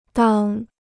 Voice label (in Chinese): "当", Chinese